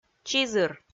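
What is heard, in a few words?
"чизыр", Komi-Zyrian, female; kpv audio dict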